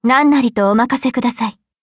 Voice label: levelup ja; Cookie Run